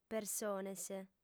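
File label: "persones", Sardinian, female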